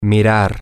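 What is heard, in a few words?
Spanish, to watch, male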